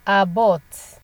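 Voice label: "abort" Nigerian Pidgin, female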